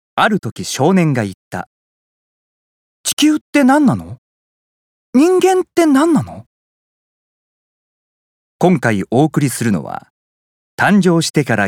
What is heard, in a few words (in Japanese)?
番組ナレ